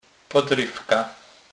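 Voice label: "podrywka", Polish, Kurpie dialect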